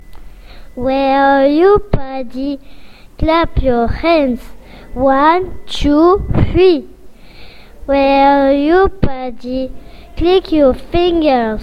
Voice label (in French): anglais